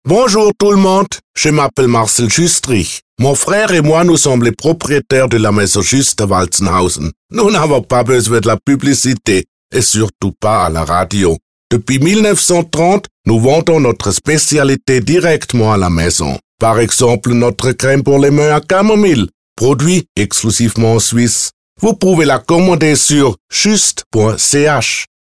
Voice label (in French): radio pub spot; alimentaire